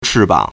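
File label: "chìbǎng", Chinese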